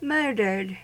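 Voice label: "murdered", US English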